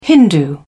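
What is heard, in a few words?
"hindu", British English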